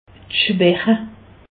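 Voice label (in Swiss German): "schwache", Alsatian; medecine; asthénie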